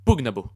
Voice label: male fight, latin